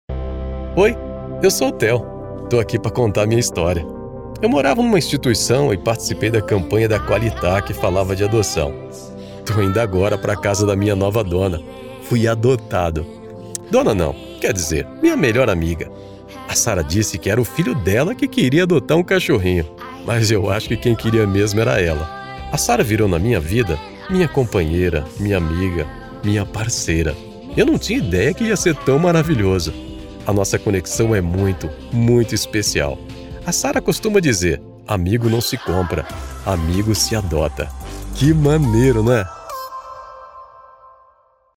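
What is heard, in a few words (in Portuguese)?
generico Portugal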